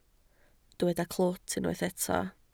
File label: "clwt", Welsh